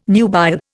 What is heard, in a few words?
"nubile", Indian